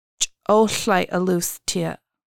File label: It is green c̓uɬəʔalus tiʔəʔ, Lushootseed; cedar